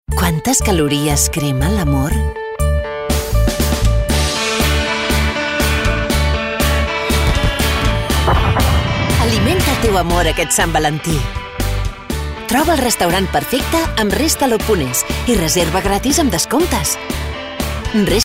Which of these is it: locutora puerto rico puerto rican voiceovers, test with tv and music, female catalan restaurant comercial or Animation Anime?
female catalan restaurant comercial